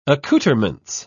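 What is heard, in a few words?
"accouterment", British English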